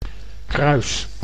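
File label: "kruis", Dutch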